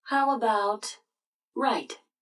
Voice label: GPS how about right; Blind Drive